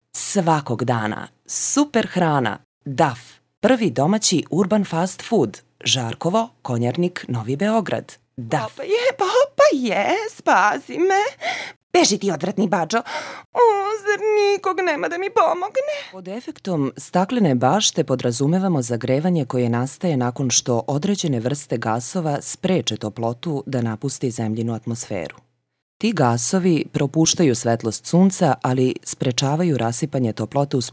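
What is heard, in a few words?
Serbian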